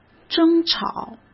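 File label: "争吵", Mandarin Chinese; chinese flashcards; hsk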